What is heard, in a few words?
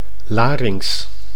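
"larynx", Dutch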